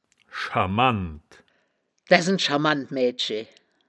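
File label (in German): "schamand", wittlicher woerterbuch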